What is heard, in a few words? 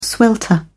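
"swelter", British English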